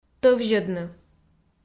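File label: "тӧвзьӧдны", Komi, female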